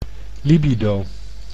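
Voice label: "libido", Dutch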